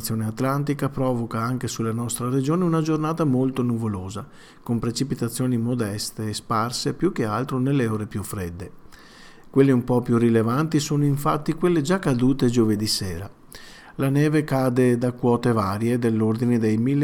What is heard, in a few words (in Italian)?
meteo radio